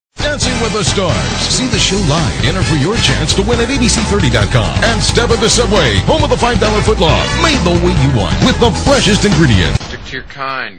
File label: male voice over promo; abc saturday night football